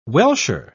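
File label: "welsher", British English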